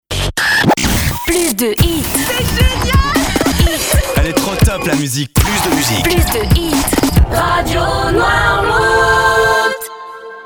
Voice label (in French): top la musique, jingles